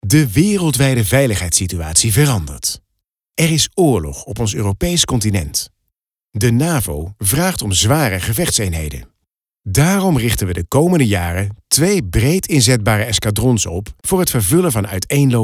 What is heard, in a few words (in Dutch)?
Explainer; Defensie